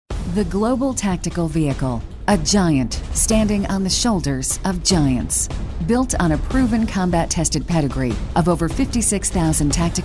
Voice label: authoritative straight